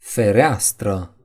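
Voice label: "window", Romanian; gcompris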